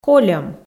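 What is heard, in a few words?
"кольӧм", Komi, female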